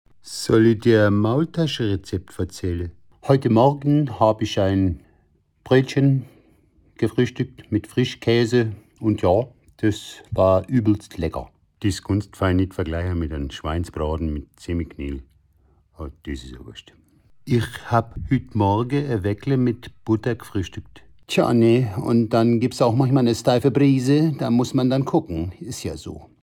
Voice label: dialekt

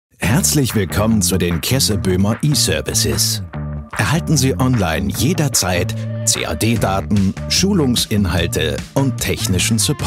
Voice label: imagefilm; kesseboehmer

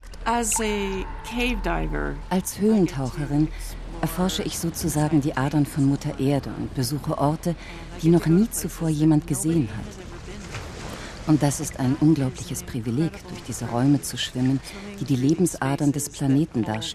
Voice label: doku vo taucherinnen

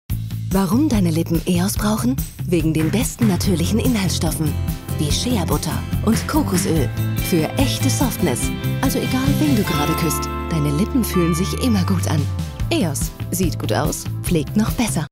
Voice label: tv werbung, lippenbalsam; eos

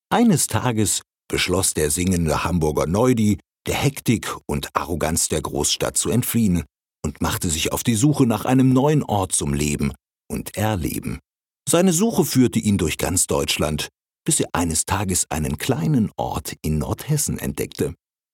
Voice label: erzaehlerisch

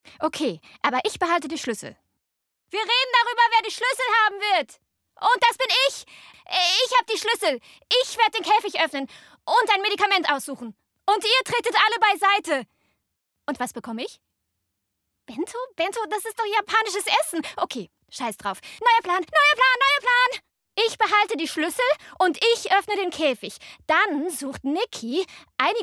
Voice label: synchron ueberdreht; Muttersprache Deutsch

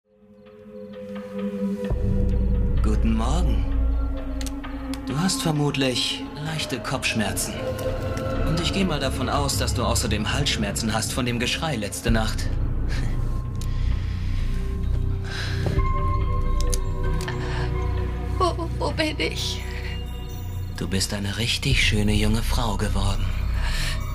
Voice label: synchron; medium nichts bleibt verborgen; andy

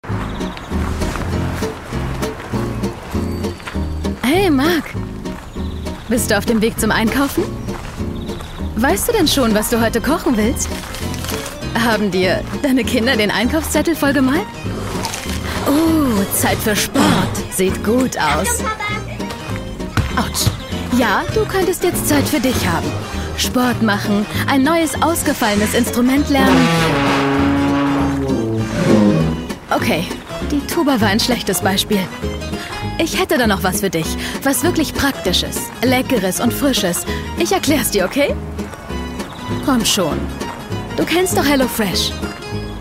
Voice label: TV Werbung; hello fresh

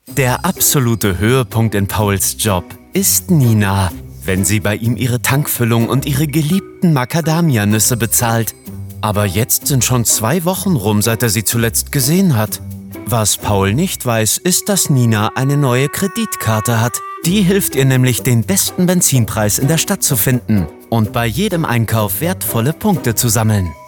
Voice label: Werbung Storytelling; Muttersprache Deutsch

